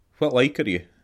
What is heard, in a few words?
how are you, scots; Whit like are ye?